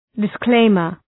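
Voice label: "disclaimer", English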